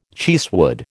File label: "cheesewood", American